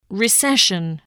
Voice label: "recession", voice, english